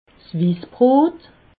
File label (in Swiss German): "wissbrot", alsace